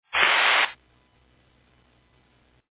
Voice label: Fire Dispatch